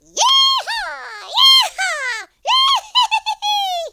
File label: "flawless", Cowgirl; speech